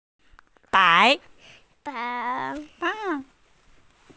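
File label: "bai2", Mandarin Chinese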